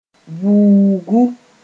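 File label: "vuugu", Mossi; trace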